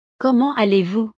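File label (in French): "comment allez vous", French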